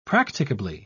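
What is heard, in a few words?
"practicably", English, British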